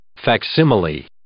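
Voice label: "facsimile", English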